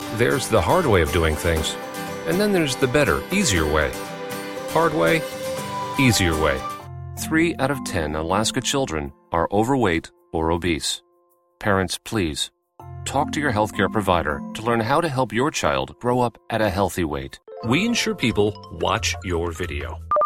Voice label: Commercial VO Quickie Explainer